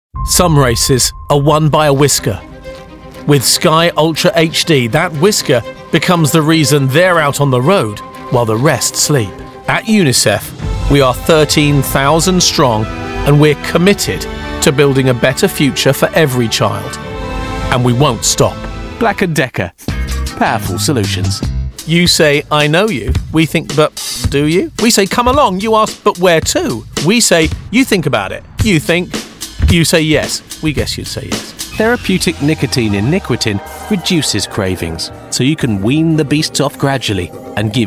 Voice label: Commercial, Recognisable, warm and engaging voice